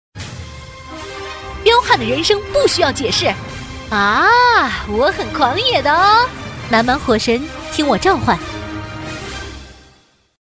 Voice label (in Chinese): 女, 游戏角色, 御女声音